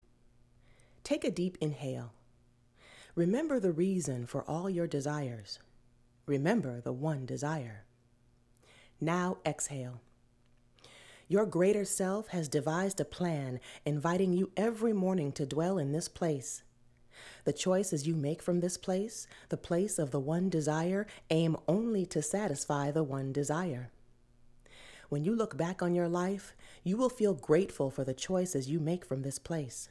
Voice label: Guided Meditation; voice over samples